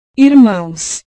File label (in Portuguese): "irmãos", portugues